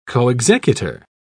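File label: "coexecutor", English, British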